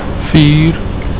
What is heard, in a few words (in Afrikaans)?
"vier", Afrikaans